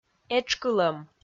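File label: "этшкылӧм", Komi, female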